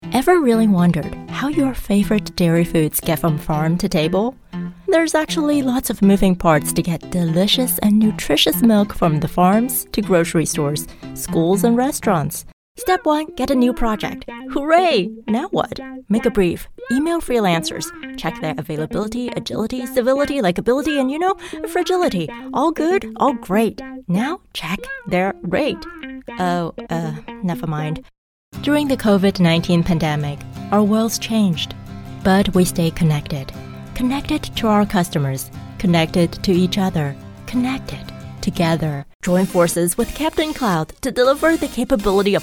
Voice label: Commercial